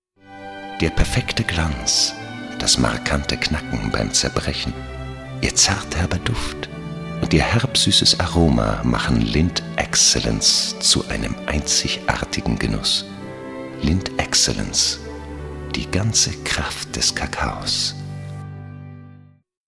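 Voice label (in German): Werbung Schokolade, advert; Lindt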